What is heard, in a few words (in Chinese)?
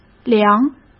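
"凉", Mandarin Chinese; hsk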